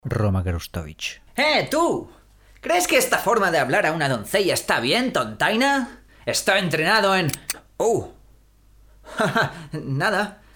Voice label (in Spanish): Audio Espanol